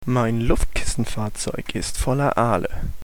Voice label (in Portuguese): hovercraft alemao